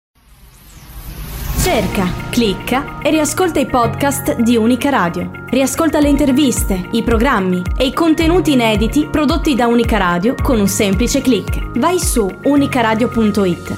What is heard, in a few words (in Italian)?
intervista